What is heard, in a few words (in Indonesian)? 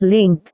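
"link", Indonesian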